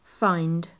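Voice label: "find", British English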